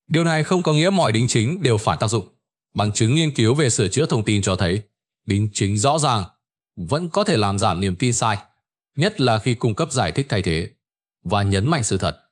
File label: narration; Vi Sao Ban De Tin Mot Dieu Khi Nghe No Lap Lai Nhieu Lan; StickerMan